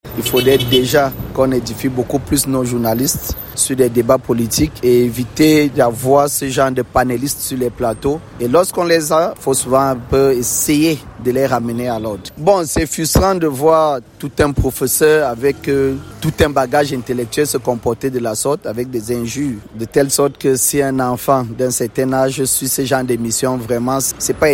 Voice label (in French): VOX POP DEBAT